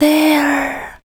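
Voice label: vocal female, Dance Vocals, spoken words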